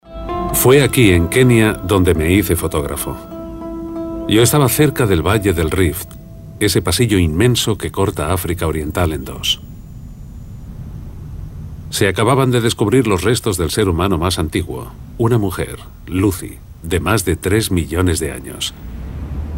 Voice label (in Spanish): doblaje